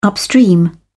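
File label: "upstream", British English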